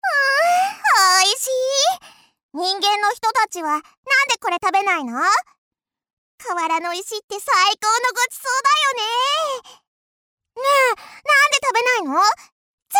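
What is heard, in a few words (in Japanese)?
女性 ロリ 元気; 明るい; アトリエピーチ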